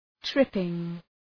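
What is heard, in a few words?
"tripping", English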